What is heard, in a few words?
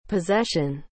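"possession", English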